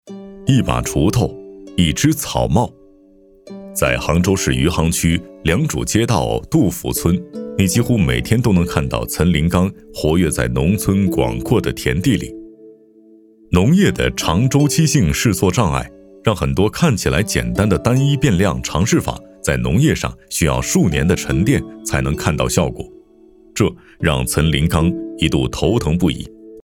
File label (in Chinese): 男 专题 人物讲述片